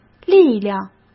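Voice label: "力量", Mandarin; chinese-flashcards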